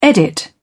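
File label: "edit", British English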